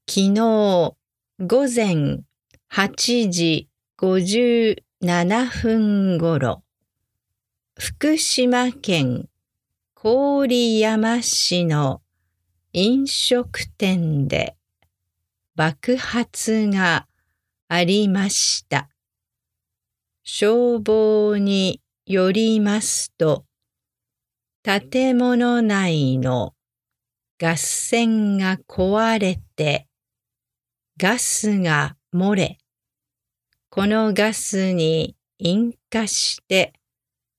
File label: news gas explosion slow speed